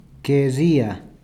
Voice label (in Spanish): "querría", Peru Cuzco